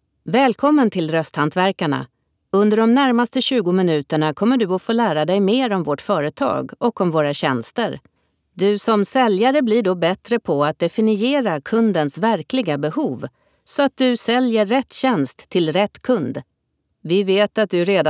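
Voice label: female, e-learning, Swedish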